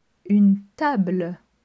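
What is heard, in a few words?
"Une table", French; delf a1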